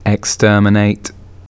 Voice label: voice dry